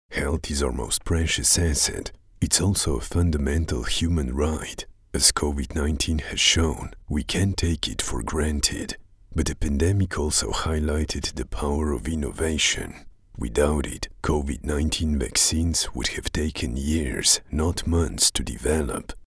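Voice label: Slovenian, English, male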